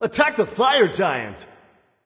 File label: vox attack; smite; raijin; voicepack